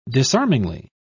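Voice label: "disarmingly", British English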